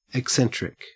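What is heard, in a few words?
"eccentric", Australian English; pronunciation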